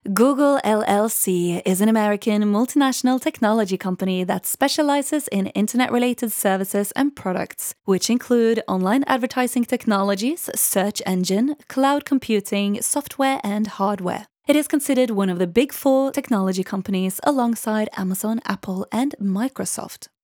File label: english corporate